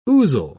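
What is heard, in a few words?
"ouzel", British English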